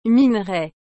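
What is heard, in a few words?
"minerai", French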